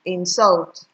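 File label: "insult", Nigerian Pidgin, female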